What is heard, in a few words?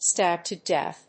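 "stab to death", English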